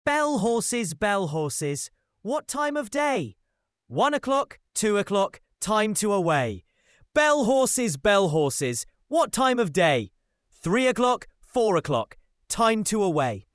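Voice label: male British; Bell Horses; ttsmp3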